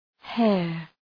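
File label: "hair", English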